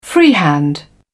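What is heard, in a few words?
"freehand", British English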